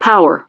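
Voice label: "Power", English; DCS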